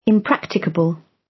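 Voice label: "impracticable", British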